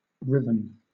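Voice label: "riven", British English; pronunciations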